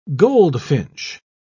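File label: "goldfinch", British English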